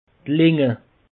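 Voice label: "lange", Alsatian; peche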